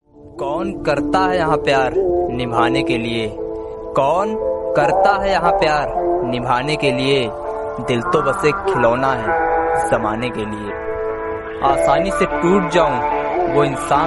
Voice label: Attitude Shayari